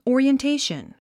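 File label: "orientation", English word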